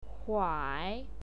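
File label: "huai", Mandarin Chinese pinyin, third tone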